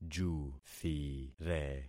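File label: "juthire", concatenated syllables; Affixing experiment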